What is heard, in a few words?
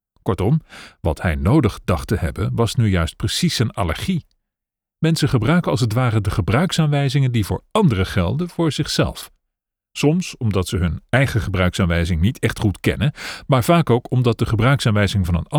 male dutch audiobook narration; Devine Voices